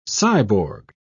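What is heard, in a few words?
"cyborg", British English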